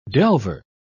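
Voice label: "delver", British English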